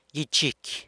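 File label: "gyichicy", San Lucas Quiaviní Zapotec; hair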